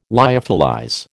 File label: "lyophilize", American